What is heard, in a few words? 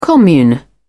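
"commune", British English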